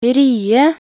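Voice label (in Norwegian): "rye", Norwegian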